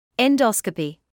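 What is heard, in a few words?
"endoscopy", British English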